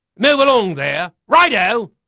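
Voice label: English male; Pirates Of The Caribbean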